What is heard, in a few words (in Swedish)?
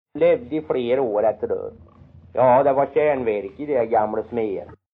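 Kroppa Värmland, dialekter; Folkminnen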